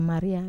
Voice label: "maria", Wamesa